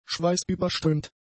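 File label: "schweißüberströmt", German; adjectives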